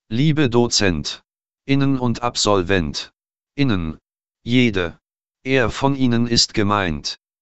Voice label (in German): Narrator ohne Doppelpunkt